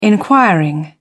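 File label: "inquiring", British English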